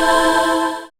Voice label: FX Vocal; Vengeance Samples; VVE1 FX Vocals